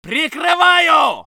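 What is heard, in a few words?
voice soviet infantry; Red Orchestra 41-45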